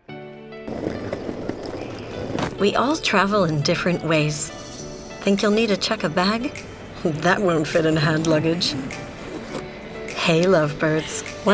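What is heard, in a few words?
English US F Commercial, female, American; voice over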